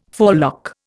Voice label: "forelock", Indian